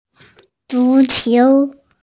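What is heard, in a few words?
"zu qiu" Chinese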